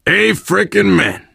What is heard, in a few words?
safe spot ahead reaction, survivor voice biker; source engine; left 4 dead 2